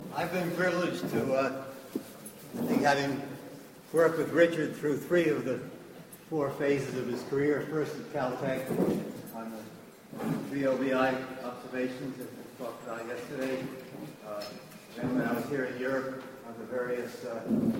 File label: Conference Dinner Speech